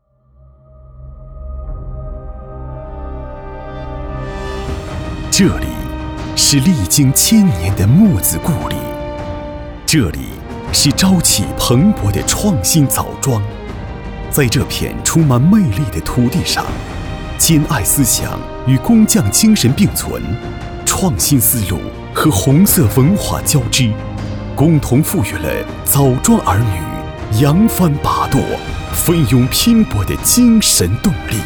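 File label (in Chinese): 山东试音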